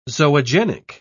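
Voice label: "zoogenic", British English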